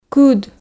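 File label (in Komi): "кыд", Komi, female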